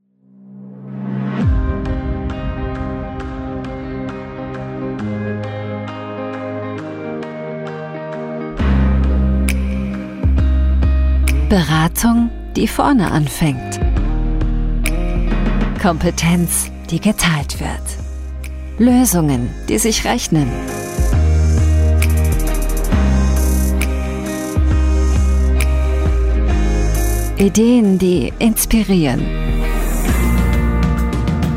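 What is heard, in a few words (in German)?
Imagefilm Computerbranche empathisch natürlich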